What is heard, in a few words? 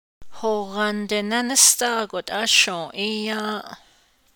navajo; When I got home I finally ate; di at